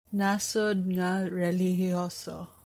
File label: Cebuano